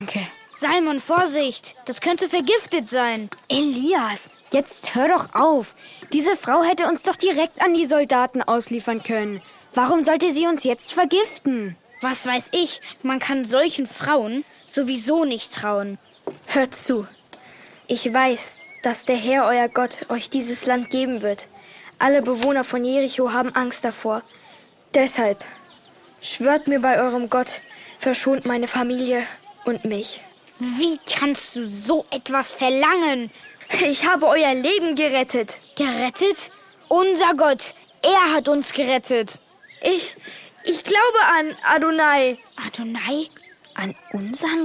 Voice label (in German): Dialog